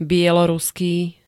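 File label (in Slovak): "bieloruský", Slovak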